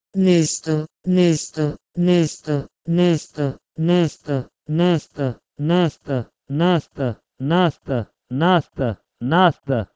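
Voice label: Proto-Indo-European nizdo to Pashto nast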